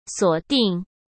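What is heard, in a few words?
"锁定", Mandarin Chinese; hsk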